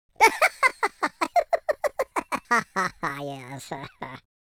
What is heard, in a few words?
happy, speech, Patronising